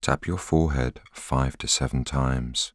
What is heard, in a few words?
voiceover